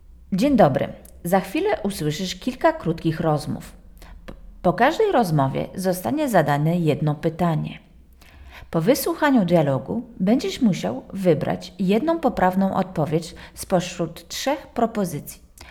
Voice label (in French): consigne polonais; Positionnement-FLE-Bruxelles